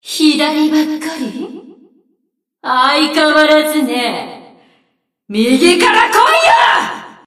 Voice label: Enemy Voice Damaged Under Attack; Abyssal Coral Sea Water Demon